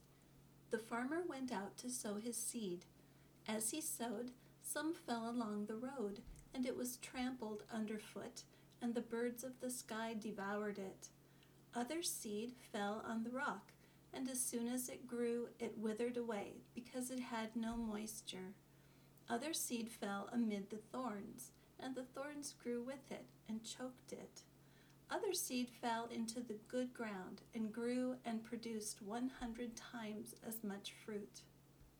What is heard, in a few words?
speaking exercise